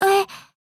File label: MOOD JP; zion